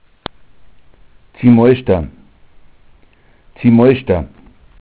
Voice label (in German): "zimoischda", dialekt